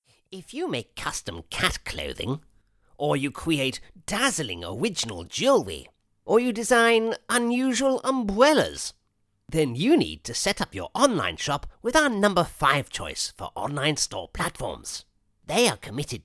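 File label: character english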